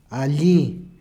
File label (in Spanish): alli, Peru Cuzco